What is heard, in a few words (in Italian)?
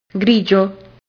"grigio", Italian